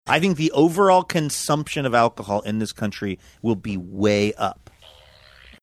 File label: saying i think overall consumption of alcohol fart sound